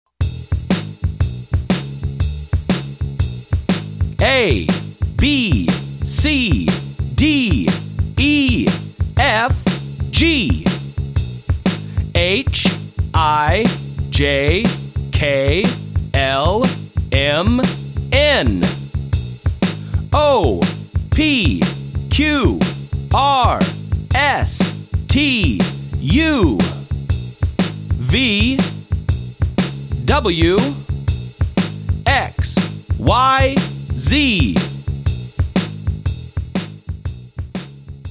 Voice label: Alphabet Chant